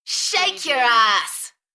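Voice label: UK female, Enthusiastic, DJ vocals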